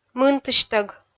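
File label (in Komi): "мынтысьтӧг", Komi, female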